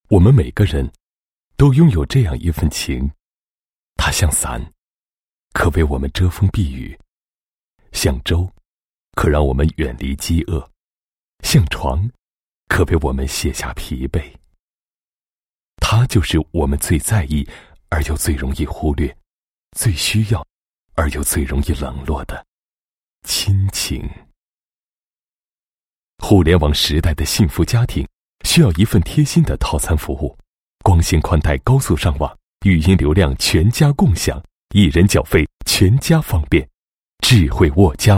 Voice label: 男 广告 温情 品牌广告, 国语男声配音; 大气

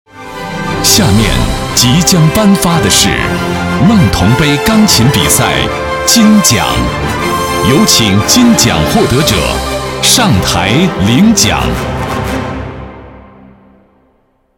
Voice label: 男 颁奖 钢琴比赛金奖 大气磅礴; 梦桐杯

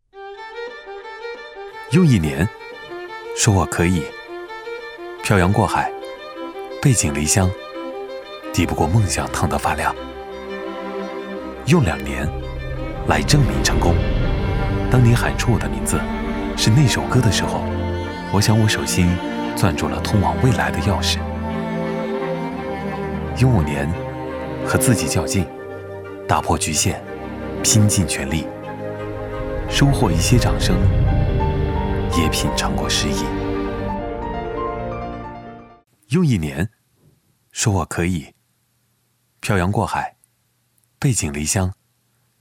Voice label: 男 微电影旁白 时尚品质

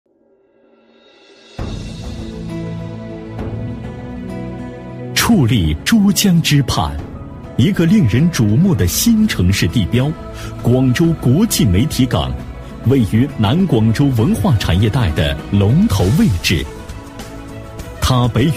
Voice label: 男 专题, 国语男声配音 大气浑厚; 广州媒体港

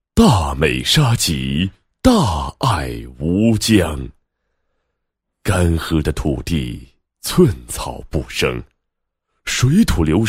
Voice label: 男-产品宣传-沙棘宣传, 配音案例; 大美沙棘 大爱无疆 沙棘宣传

